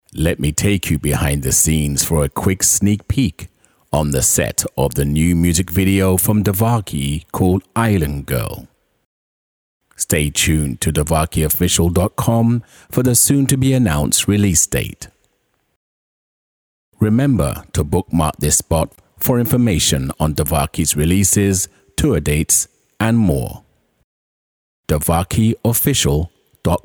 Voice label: Island Girl Naration